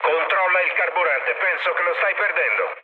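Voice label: Italian tank damaged mood low, speech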